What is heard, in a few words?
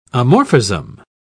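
"amorphism", English, British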